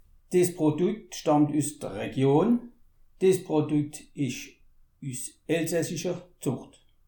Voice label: "elsassischer", alsace

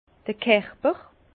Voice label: "kerper", Bas Rhin; vin; texture

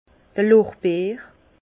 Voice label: "lorbeer", Bas Rhin; vin; laurier

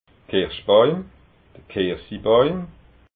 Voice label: "kirschbauim", alsace

